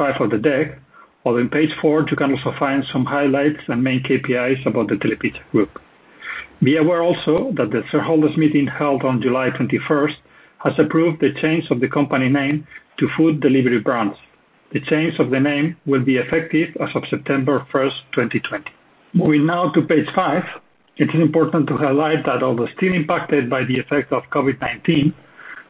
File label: Results presentation Voice Over